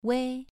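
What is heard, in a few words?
"wei" Mandarin Chinese, second tone, pronunciation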